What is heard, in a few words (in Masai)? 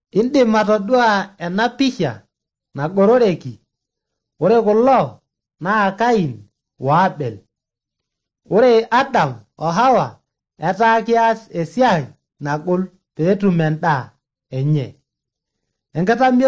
maasai bsv nt drama; good news; bible